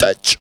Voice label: TOUCH, Funky Vocals; USB Soundscan vol.18; AKAI